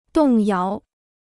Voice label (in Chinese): "动摇", Chinese